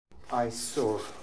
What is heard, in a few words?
"Aisor", Beelzebub pronounciations; Fourth Way